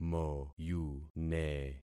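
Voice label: "moyune", concatenated syllables; Affixing experiment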